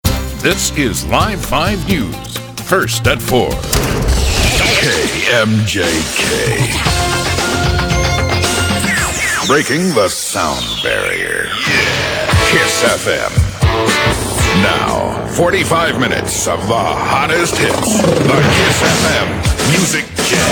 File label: Imaging VOs